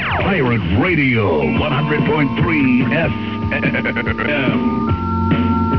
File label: pirate